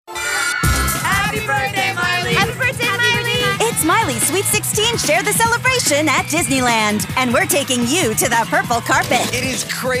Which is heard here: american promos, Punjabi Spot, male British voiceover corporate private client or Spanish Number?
american promos